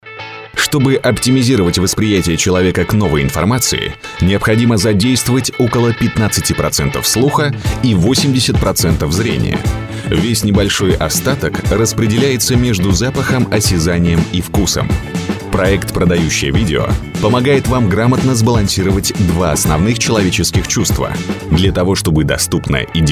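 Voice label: dynamic, Russian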